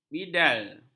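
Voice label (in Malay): "bidal", Malay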